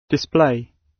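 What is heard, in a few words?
"display", British; Technology; Công nghệ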